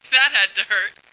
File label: "had to hurt", female